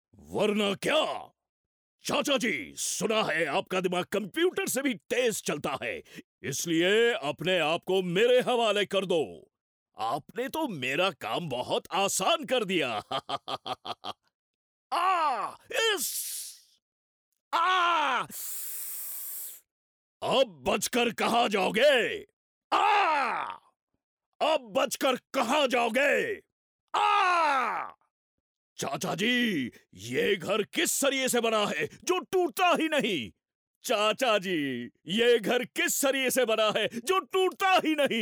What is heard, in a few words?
foreigner